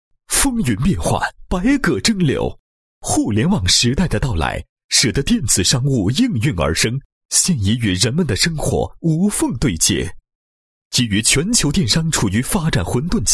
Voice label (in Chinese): 男 企业 宣传片; 聚贾商城